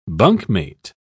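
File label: "bunkmate", British English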